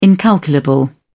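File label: "incalculable", British English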